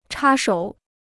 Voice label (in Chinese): "插手", Chinese